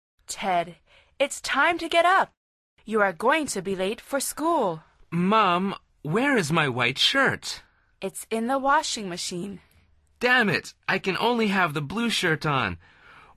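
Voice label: dialog, Conversación en Ingles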